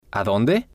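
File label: "adonde", spanish, male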